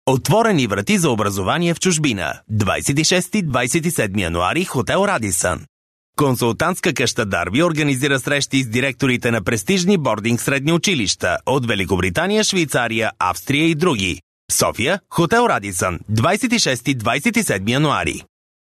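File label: Bulgarian, male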